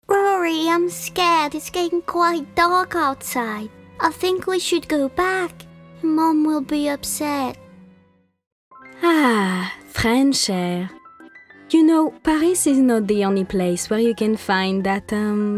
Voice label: VOICE ENGLISH